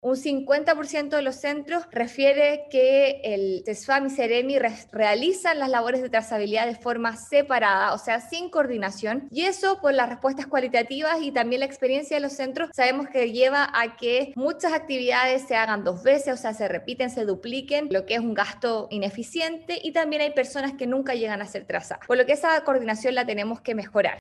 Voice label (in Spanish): CUÑA